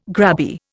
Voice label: "grabby", Indian

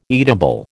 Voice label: "eatable", American

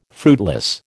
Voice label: "fruitless", American

